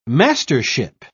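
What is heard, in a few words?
"mastership", British English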